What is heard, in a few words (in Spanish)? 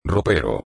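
"ropero", español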